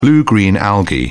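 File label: "blue-green algae", British English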